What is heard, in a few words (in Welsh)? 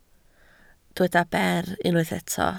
"ber", Welsh